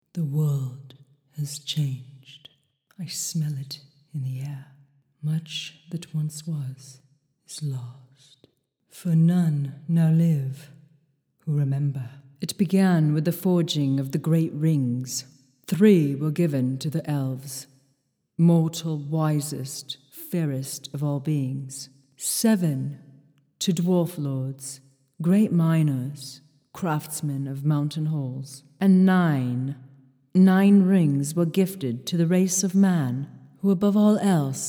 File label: Animation Voice